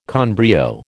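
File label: "con brio", American English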